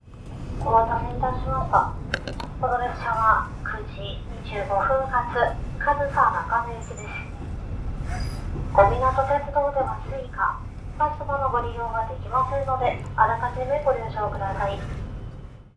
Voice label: KOMINATO ANNOUCE